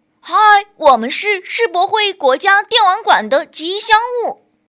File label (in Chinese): 动画 童声 男童 憨态可掬 自我介绍 吉祥物